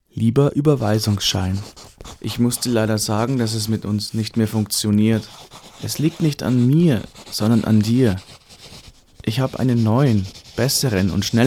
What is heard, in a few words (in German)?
Werbung Sparkasse